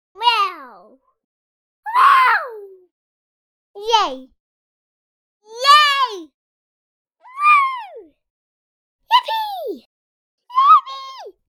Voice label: Voice Child Wow celebration